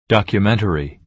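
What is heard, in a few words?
"documentary", American English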